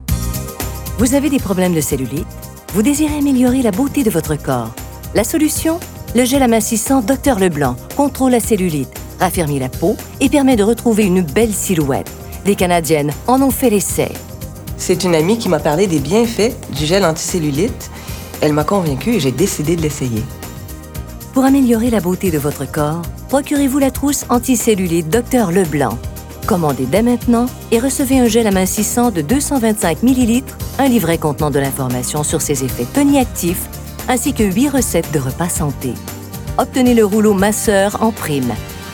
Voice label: Voix convaincante Publicite

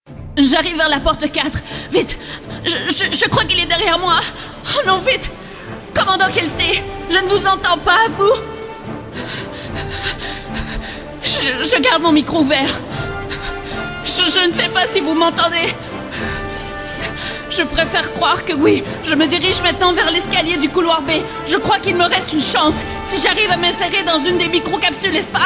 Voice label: voix animation

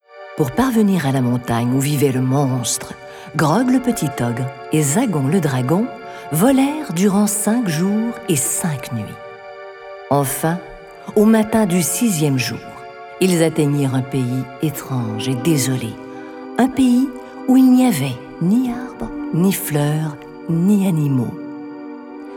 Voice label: Audiolivre

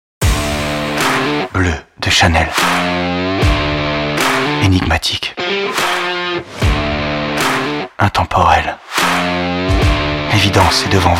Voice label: pub narration doublage

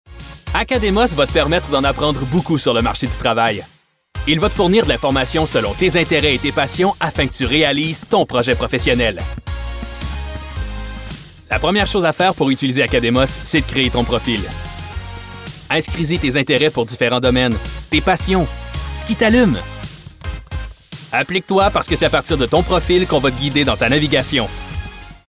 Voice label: Corpo; Academos

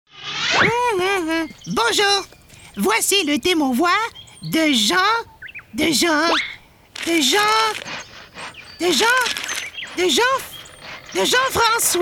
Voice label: Doublage

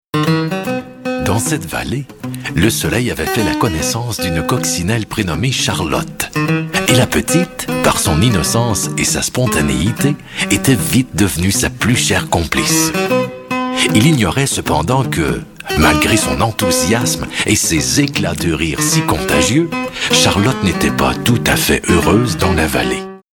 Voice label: Audio Livre

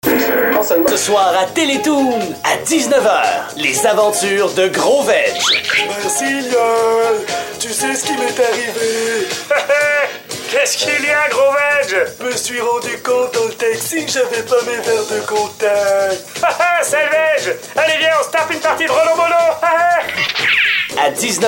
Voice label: pub et voix composition

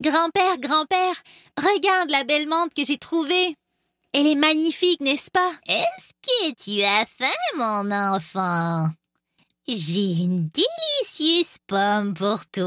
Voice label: voix de composition

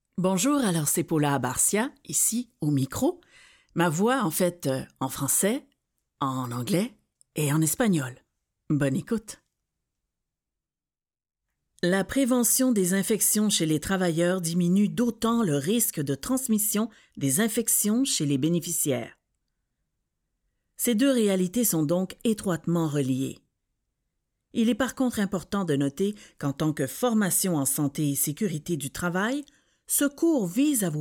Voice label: instructions trilingues

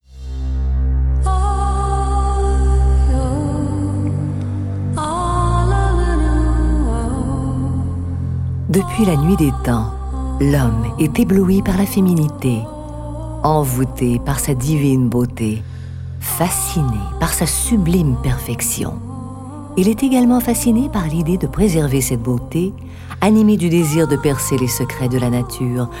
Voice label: Voix douce et feutre, Publicite

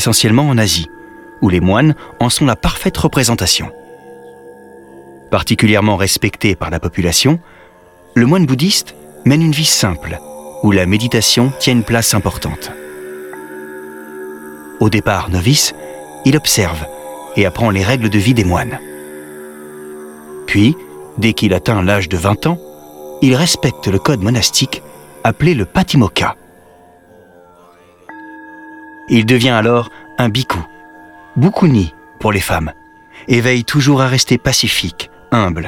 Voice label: Narration Bouddhisme

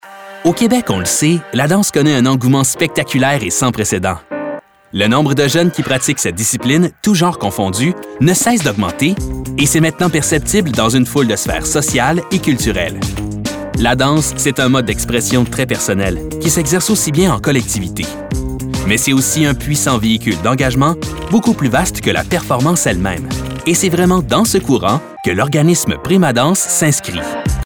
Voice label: Corpo; PrimaDanse

